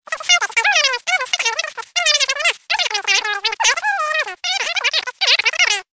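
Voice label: cartoon chatter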